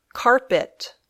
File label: "carpet", English